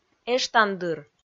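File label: "эштандыр", Komi, female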